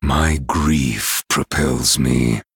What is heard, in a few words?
Male Night Elf